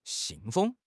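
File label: "xin4 feng1", Chinese; HSK4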